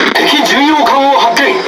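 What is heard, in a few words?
Speech Detection Enemy Cruiser, Japanese; World Of Warships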